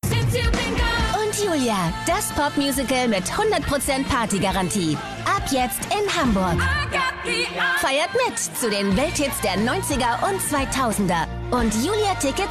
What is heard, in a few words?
Spot